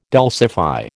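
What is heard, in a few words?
"dulcify", American English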